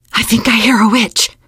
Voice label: heard witch, teengirl; voice; source engine; left 4 dead 2; survivor